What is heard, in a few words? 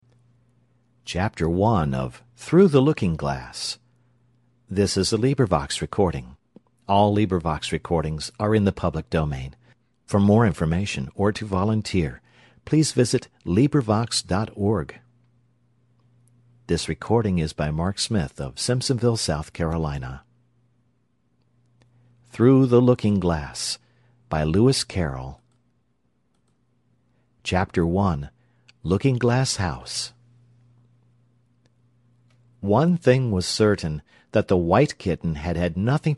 male reading